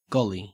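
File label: "golly", Australian English; pronunciations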